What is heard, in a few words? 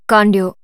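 story, Japanese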